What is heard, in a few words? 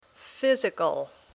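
"physical", English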